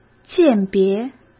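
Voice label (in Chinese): "鉴别", Mandarin Chinese; chinese flashcards; hsk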